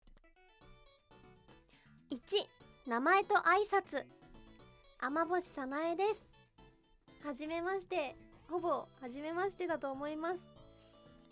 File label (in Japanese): フリートーク